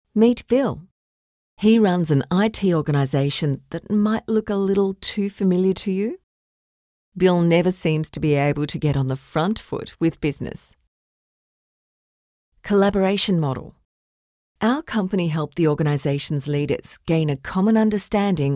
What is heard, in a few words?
Explainer Video